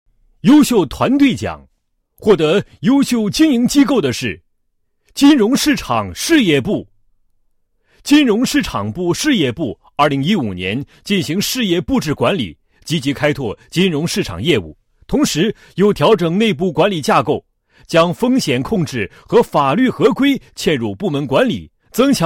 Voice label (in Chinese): 男 颁奖; 某公司2015年优秀团队奖